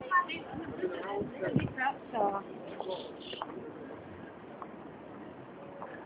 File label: people talking riverside walk